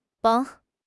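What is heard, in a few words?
"甭", Chinese; HSK6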